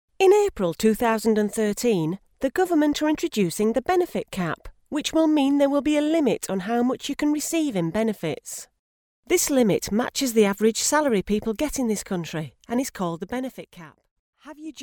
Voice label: English UK Yorkshire Corporate